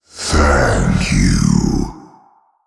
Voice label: Male Old God Thanks